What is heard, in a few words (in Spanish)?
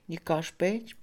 juramento, Mixe, Mujer; INALI